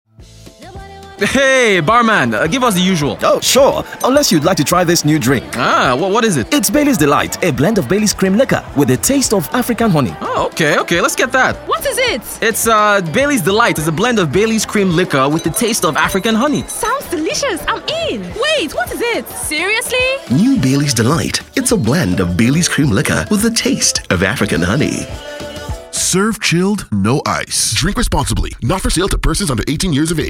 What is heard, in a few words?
BAR WHISPERS